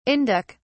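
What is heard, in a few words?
"indic", British English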